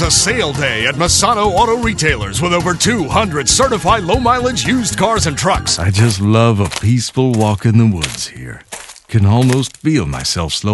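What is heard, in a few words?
Commercial, real people male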